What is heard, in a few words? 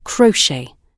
"crochet", GB